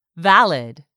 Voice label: "VALID", English; 3000 words